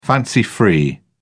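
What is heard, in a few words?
"fancy free", British English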